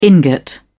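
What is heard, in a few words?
"ingot", British English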